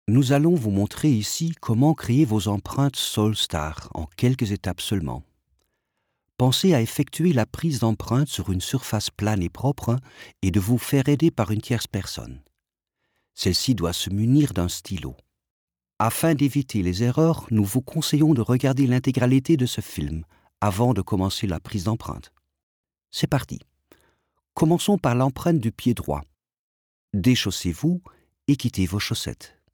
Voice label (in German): franzoesisch berlin